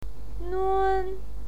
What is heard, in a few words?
"nun1", pinyin